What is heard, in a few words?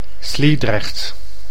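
"Sliedrecht", Dutch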